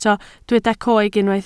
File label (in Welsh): "coeg", Welsh